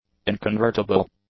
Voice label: "inconvertible", English; adjectives